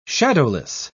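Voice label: "shadowless", British English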